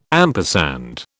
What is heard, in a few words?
"ampersand", British